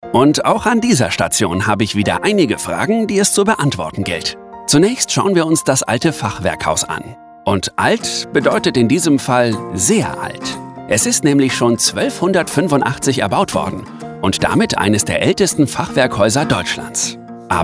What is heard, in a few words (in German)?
audioguide dynamisch jung musik